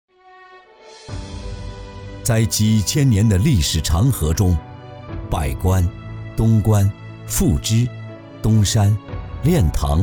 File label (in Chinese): 男 纪录片 大气历史; 上虞地名